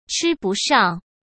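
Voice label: "吃不上", Mandarin Chinese; hsk; chinese-flashcards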